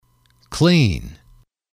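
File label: "clean", English, male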